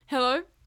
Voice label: Female "Hello"